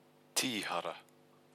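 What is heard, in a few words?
"tihara", Māori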